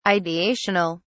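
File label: "ideational", British English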